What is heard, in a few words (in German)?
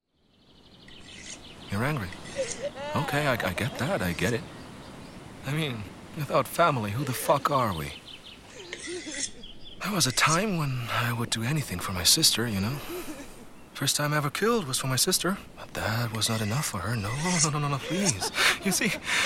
englisch computerspiel; LOFT VOICES